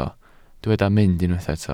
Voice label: "mynd", Welsh

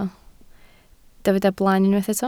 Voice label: "blaen", Welsh

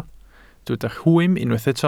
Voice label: "rhwym", Welsh

